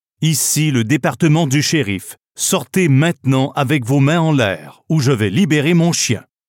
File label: Sheriff Canine Release (French Canadian); Pathfinder